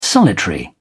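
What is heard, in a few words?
"solitary", English, British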